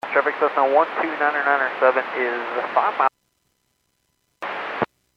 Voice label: Airports 122.7, Radio; KSTE

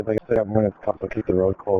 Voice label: Police Sheriff Dispatch

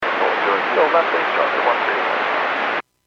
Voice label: Airports 122.7, Radio; KSTE

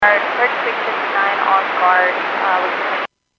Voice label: Airports 121.5 Emergency, Radio

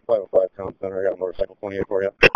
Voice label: Police Dispatch

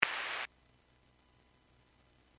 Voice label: Fire Dispatch

